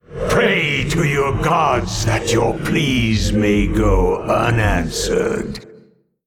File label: Male OldGod Emote MIRROR GREETINGS